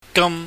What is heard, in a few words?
"gam", chinese